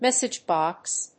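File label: "message box", English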